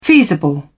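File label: "feasible", British English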